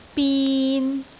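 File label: "bin3", Cantonese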